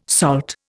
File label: "SALT", Indian